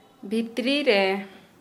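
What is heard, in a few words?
Santali female in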